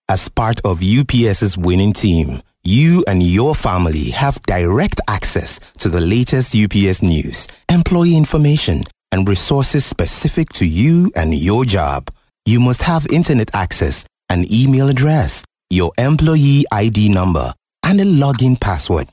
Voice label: english african nigeria locutor voiceover

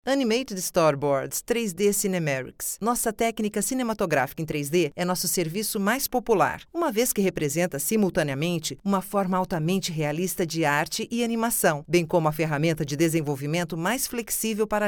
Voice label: locutora brasil brazilian voiceover female; locutora de Brasil

